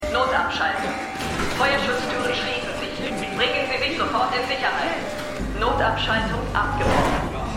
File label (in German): Durchsage, Stimmproben